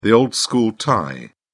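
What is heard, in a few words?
"old school tie", British English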